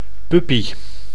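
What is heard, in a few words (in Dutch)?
"puppy", Dutch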